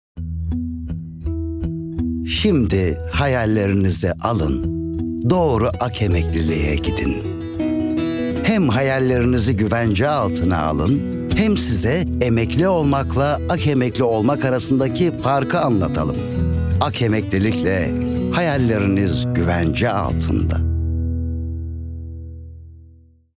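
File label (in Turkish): sicak samimi, seslendirme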